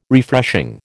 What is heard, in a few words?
"Refreshing", American